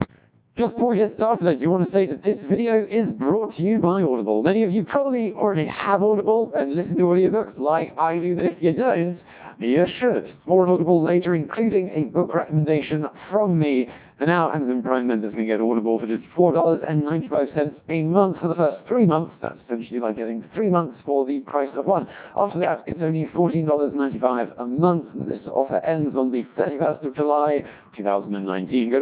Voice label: codec2 1200; youtube